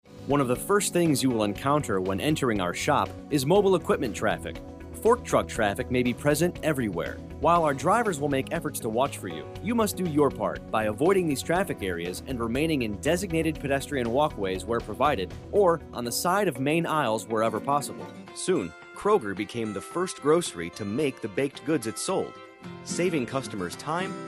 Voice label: Narration